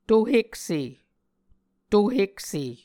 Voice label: "tohekse", Muscogee